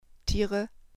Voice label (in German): "tiere", german